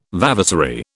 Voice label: "vavasory", British

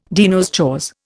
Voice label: "de nos jours", Indian

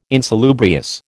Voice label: "insalubrious", American

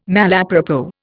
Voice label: "malapropos", Indian

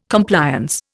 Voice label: "Compliance", Indian

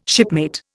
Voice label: "shipmate", Indian

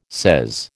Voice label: "sez", American

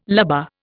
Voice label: "lubber", Indian

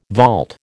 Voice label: "vault", American

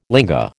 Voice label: "linga", American

